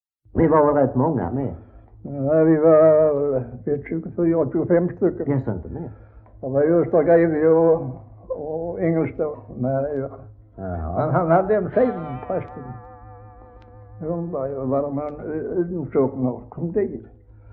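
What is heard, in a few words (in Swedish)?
Västra Ingelstad, Swedish dialect; Folkminnen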